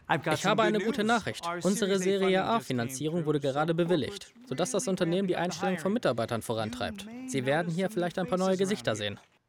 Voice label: VoiceOver